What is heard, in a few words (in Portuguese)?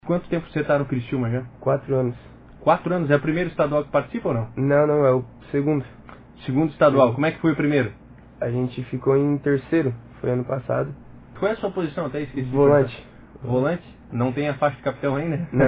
trecho entrevista